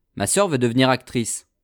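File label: "ma soeur veut devenir actrice", French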